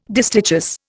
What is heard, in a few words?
"distichous", Indian